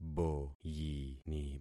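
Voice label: "boyini", concatenated syllables; Affixing experiment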